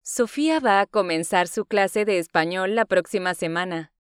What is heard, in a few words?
Spanish Listening; Spanish Test